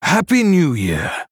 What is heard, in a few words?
Male Human Happy New Year Lunar